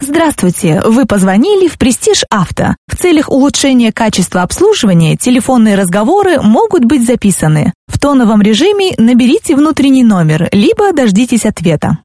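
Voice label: Russian, IVR; GoLocalise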